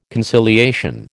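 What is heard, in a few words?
"conciliation", American English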